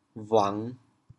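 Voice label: "bhuang5", Teochew, chaoyang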